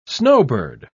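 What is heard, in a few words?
"snowbird", British English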